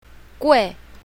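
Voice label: "gui4", Chinese pinyin, south, female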